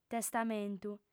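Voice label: "testamentu", Sardinian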